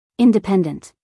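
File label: "independent", American English, female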